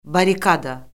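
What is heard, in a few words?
"barrikada", Azerbaijani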